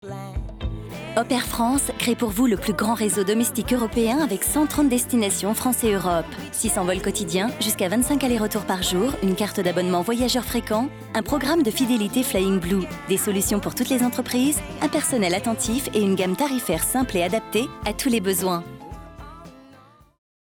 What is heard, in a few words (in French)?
film entreprise; Hop Air France